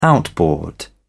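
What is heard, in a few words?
"outboard motor", British English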